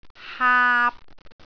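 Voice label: "haap3", Cantonese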